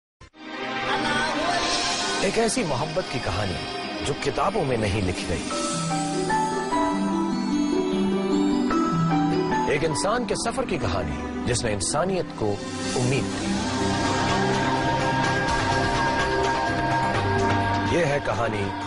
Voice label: Dialogue Ringtone; My Name Khan